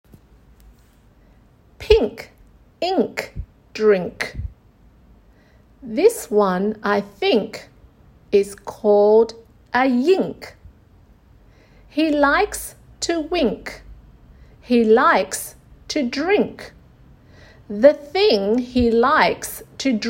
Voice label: nk chant; sw phonics

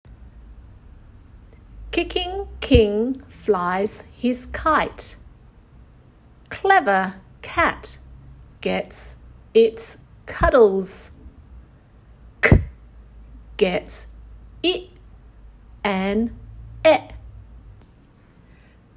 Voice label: ck chant, english phonics; sw phonics

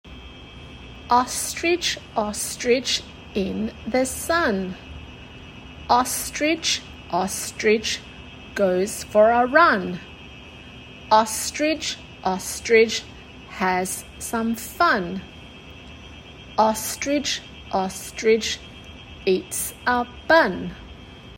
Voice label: o chant, english phonics; sw phonics

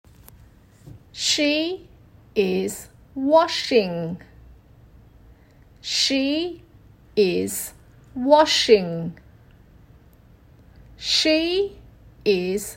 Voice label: sh chant, english phonics; sw phonics

